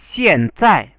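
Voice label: "xianzai" Chinese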